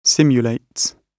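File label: "simulates", British English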